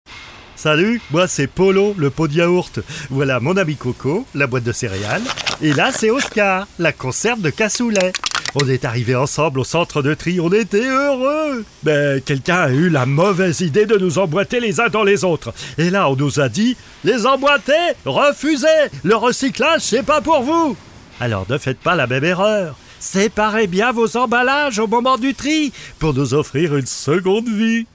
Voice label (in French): spot; pot de yaourt